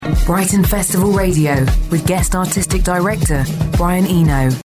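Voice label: English UK, Werbung, Dynamisch; Fremdtake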